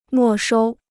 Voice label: "没收", Chinese

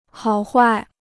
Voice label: "好坏", Chinese; hǎo huài; good or bad; good and bad

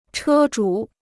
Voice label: "车主", Chinese

